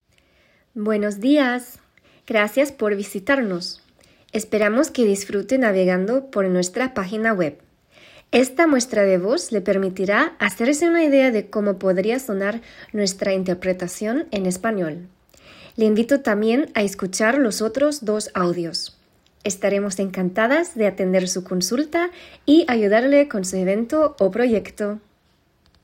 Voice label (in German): Aufnahme Spanisch